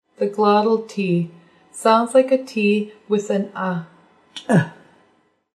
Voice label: glottle t; audio alphabet